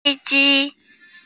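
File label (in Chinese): "fei1ji1", Mandarin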